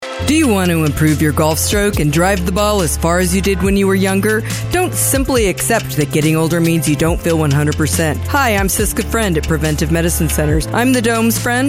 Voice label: radio commercial; PREVENTIVE MEDICINE CENTERS 2022; kxps